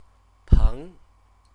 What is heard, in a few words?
"peng", Chinese